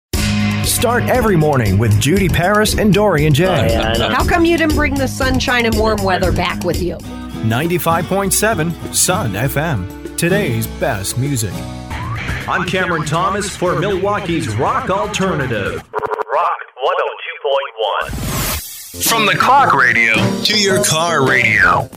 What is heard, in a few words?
Imaging